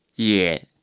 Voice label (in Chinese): "也", Chinese